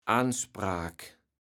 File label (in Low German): "Anspraak", kaevels platt